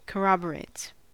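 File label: "corroborates", US English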